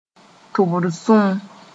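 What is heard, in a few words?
"tʋbr sũm", Mooré; earwax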